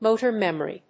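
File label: "motor memory", English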